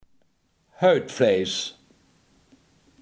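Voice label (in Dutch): hoofdkaas, Limburgse gesproken woordenboeken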